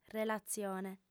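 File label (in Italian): "relatzione", lingua sarda, fem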